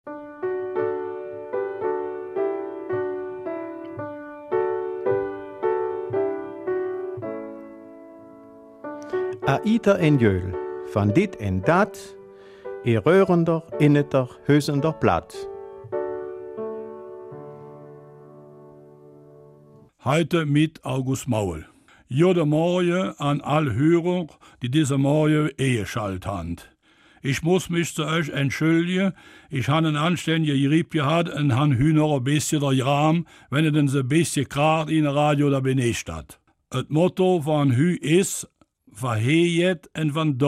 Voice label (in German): Mundart Raeren Studiogäste; va Roren, Enette en Hoset